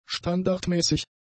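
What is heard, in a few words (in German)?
"standardmäßig", German; adjectives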